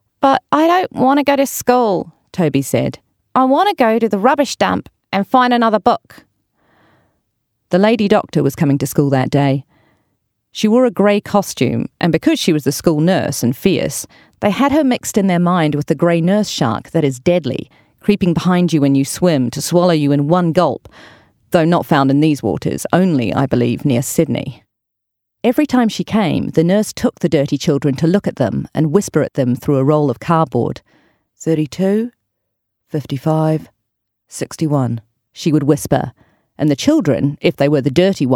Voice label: english new zealand audiobooks

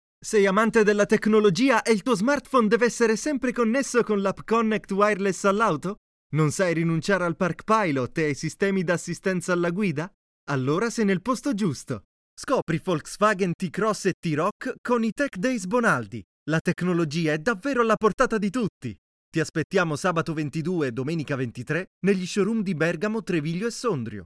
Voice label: italian automotive

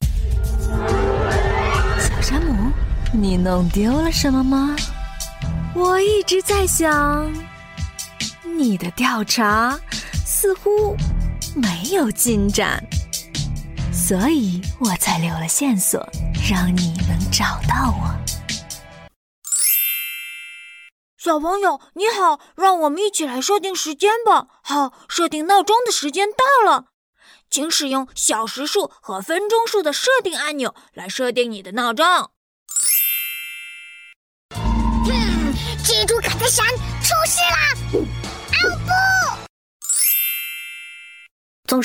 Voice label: chinese mandarin animation

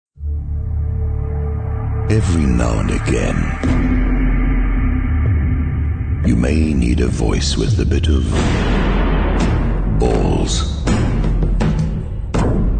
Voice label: english south african video games

